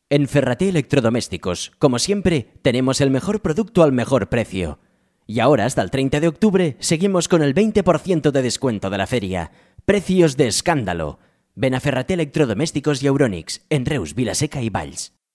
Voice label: locutor spanish voiceover